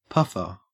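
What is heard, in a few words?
"puffer", Australian English; pronunciations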